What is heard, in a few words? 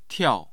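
"tiao4", Mandarin Chinese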